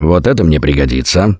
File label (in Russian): take melee, left4dead2 russian, Survivor Voice; Gambler